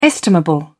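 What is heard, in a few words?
"estimable", British English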